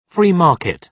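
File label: "free market", British English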